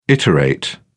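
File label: "iterate", British English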